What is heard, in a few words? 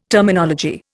"Terminology", Indian